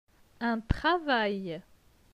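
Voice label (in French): "Un travail", French; phonetique; les voyelles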